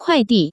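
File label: "kuaidi", Taiwan Mandarin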